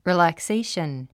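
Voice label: "relaxation", English word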